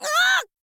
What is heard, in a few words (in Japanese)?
thief boy damage